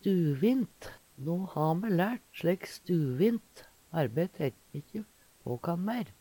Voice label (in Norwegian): stuvint, Numedalsmål